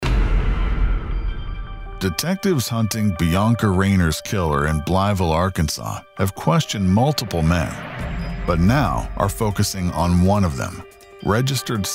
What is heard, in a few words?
men narration